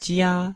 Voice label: "jia1", Chinese

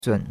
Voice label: "zun3", chinois; Cours-de-Chinois

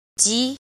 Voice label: "jin", Chinese

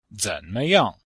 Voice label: "zen3me5yang4", Chinese

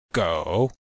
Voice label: "gou3", Chinese

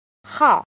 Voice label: "jin", Chinese

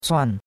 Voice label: "cuan4", chinois; Cours de Chinois

